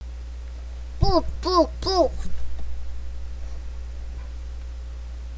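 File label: "book", spoken word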